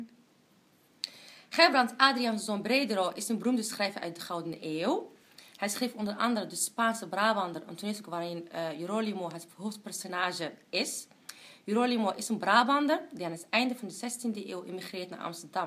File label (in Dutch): Fragment Marokkaans